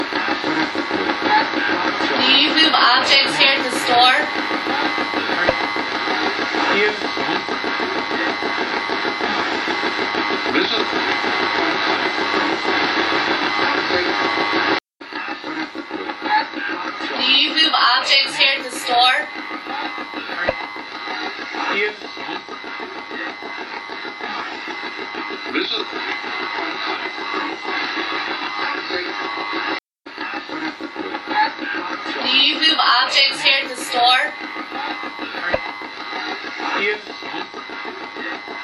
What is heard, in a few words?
radio voices move objects